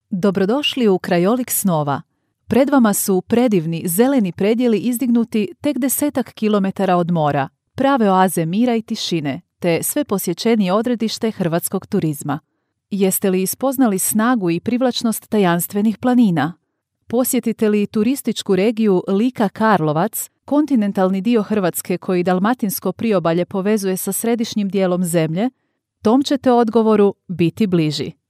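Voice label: Croatian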